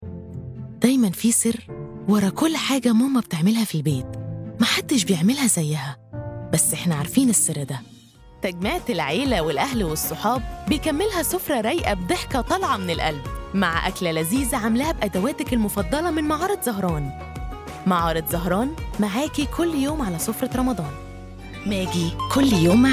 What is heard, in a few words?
Egyptian Commercial; Egyptian Commercial Demoreel 2024